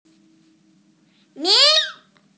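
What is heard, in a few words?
"min2", Chinese, female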